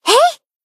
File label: Battle Shout; Hifumi